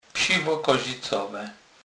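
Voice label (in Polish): "psiwo", Kurpie dialect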